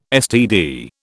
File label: "STD", British